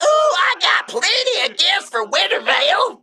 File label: Male Mech HAPPY HOLIDAYS